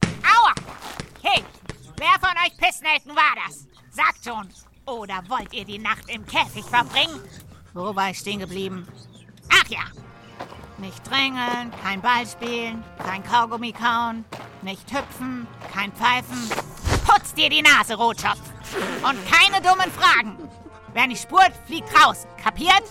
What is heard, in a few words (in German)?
Trickstimme strenge Campleiterin